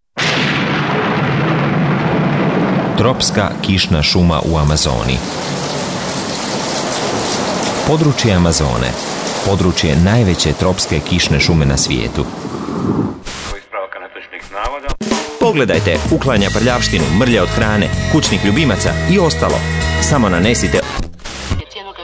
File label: croatian m commercial, voice demos